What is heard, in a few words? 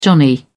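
"johnny", British English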